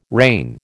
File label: "rain", American